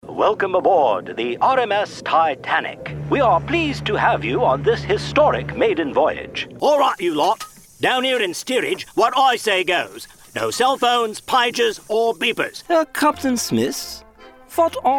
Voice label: voiceover animation; new york